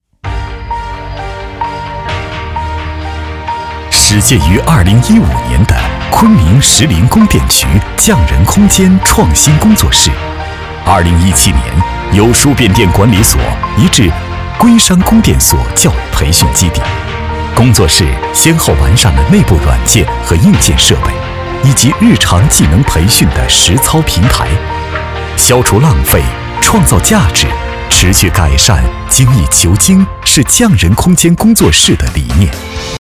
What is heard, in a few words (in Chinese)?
男, 宣传片, 沉稳; 某工作室宣传片